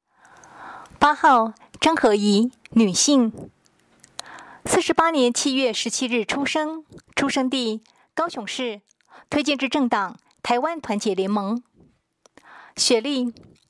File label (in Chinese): 國語, 有聲選舉公報; 高雄市; 高雄市市長議員有聲選舉公報; 第十一選區